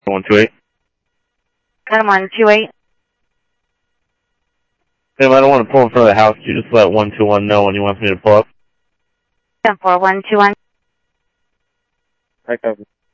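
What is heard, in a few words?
Sheriff East County, scanner audio trunk; ThompsonPeakScot; MCSO - EAST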